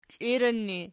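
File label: "kliran" ergative, Archi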